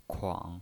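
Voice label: "kuang", Mandarin Chinese, third tone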